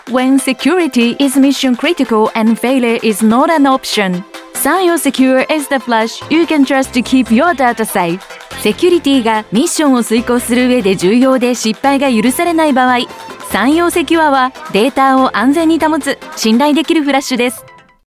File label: Voice English